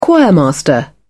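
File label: "choirmaster", British English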